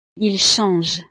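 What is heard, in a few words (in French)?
"ils changent", French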